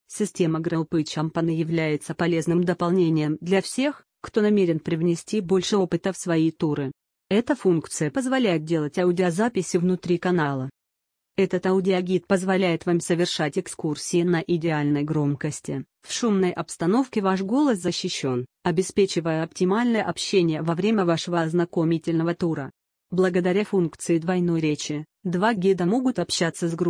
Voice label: Speech, Audioguide; Groupy Company; RU